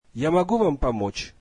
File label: russian can I help you